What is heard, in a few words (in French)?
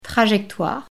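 "trajectoire", French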